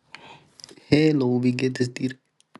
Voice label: voice message